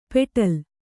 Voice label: "ಪೆಟಲ", Kannada